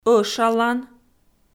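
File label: "ӧшалан", Komi, female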